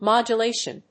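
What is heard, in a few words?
"modulation", English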